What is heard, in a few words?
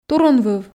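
"турунвыв", Komi, female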